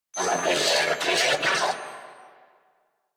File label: Surprised, Female; en; Warframe; New War; Narmer Grineer